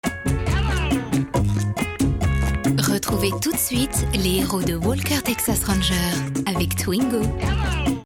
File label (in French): billboard; twingo; m6